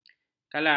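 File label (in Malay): "kalang", Malay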